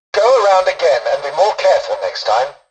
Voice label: tutorial dialogue, english; War Thunder